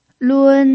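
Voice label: "lun", Mandarin Chinese pinyin, tone 1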